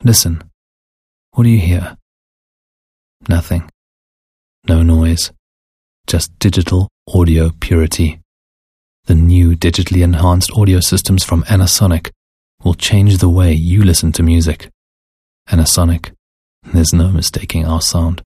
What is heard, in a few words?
English Intimate Sell